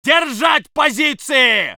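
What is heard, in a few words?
voice soviet infantry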